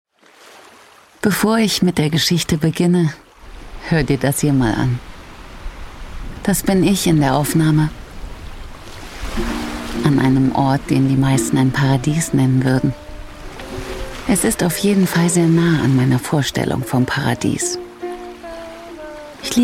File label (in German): Sinnlich